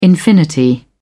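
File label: "infinity", British English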